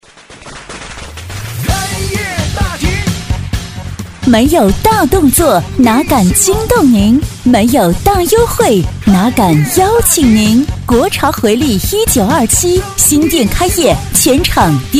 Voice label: 女 促销广告 活力激情; 开业; 国潮回力

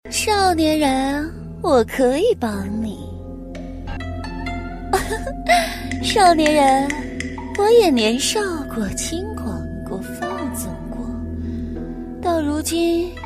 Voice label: 女, 模仿, 小狐狸; 《白蛇缘起》-小狐狸

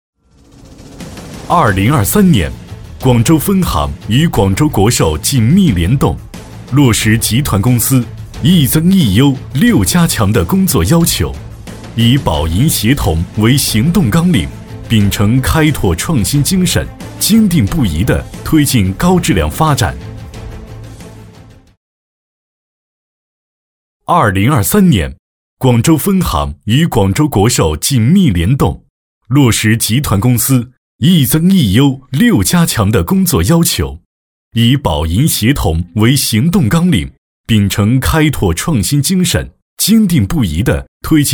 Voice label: 男 专题 汇报 保险; 大气浑厚; 广州分行保险; 男D6-专题：汇报-广州分行保险; 抒情温暖

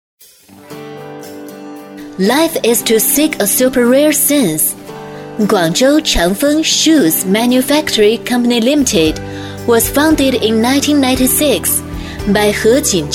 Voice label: 女, 英文, 企业宣传片, 大气知性; 大气浑厚

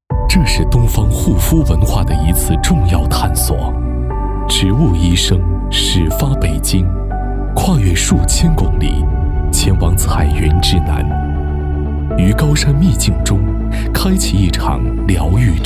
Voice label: 男, 宣传片, 护肤 磁性走心; 走心旁白类型

